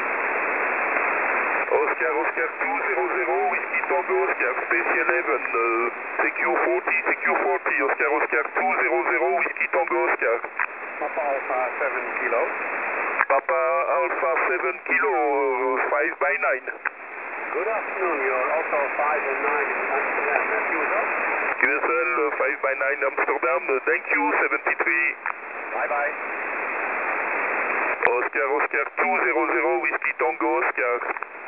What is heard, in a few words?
qso; 7084khz